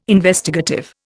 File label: "Investigative", Indian